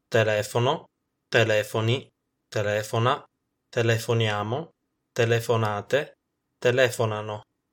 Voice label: telefonare coniugazione, Italian

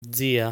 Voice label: "Zia", Italian

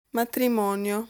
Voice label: "Matrimonio", Italian

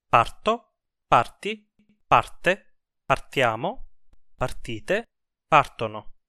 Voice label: partire coniugazione, Italian

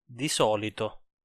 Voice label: "di solito", Italian

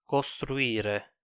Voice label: "costruire", Italian

